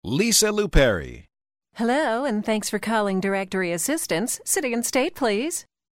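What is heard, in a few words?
operator, voice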